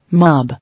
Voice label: "Mob", English